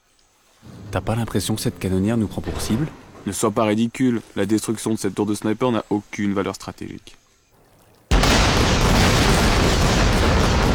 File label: french animation